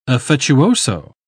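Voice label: "affettuoso", English, uk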